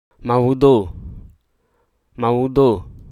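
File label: Hruso Aka; damage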